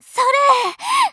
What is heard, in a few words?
attack, Voice Line, JPN; Eternal Return; Tia